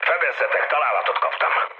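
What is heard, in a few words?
Hungarian aircraft damaged mood low, speech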